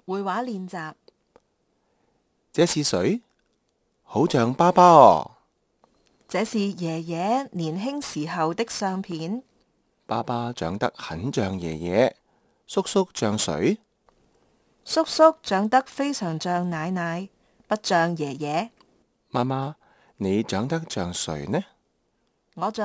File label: conversation, Kantonese